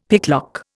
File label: "picklock", Indian; Dictionary